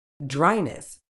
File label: "dryness", uk